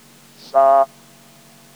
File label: fricative